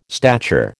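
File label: "stature", American English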